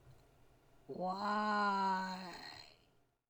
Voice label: Voices Female